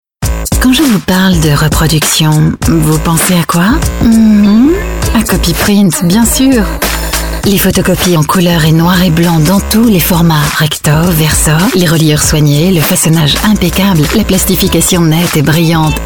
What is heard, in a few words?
french sensual